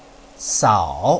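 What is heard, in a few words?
"sao", Mandarin Chinese, third tone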